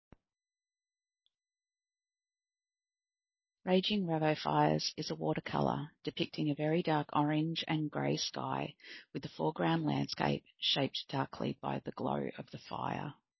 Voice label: Audio Description; Rageing Ravo Fires; Living My Best Life